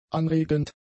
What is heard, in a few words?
"anregend", German; adjectives